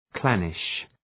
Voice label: "clannish", English

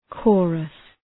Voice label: "chorus", English

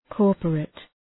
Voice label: "corporate", English; {‘kɔ:rpərıt}; i korporatës; i përbashkët

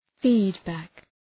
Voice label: "feedback", English

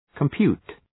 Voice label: "compute", English

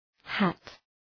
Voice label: "hat", English

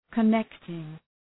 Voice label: "connecting", English

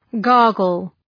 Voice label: "gargle", English

